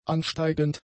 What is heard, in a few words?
"ansteigend", German; adjectives